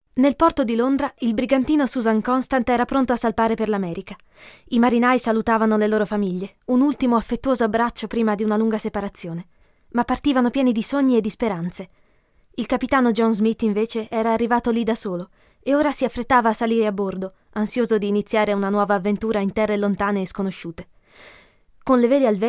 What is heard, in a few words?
ITA Audiobook